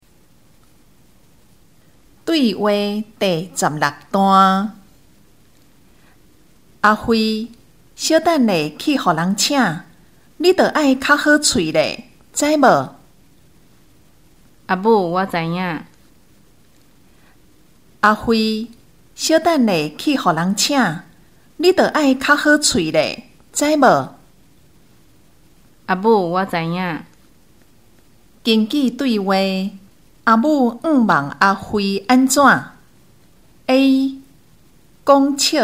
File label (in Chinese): 對話, 閩南語; 閩南語語言能力認證; 閩南語能力測驗題本